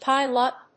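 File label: "pile up", English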